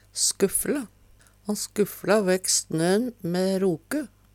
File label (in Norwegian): "skuffle", Numedalsmål; Verb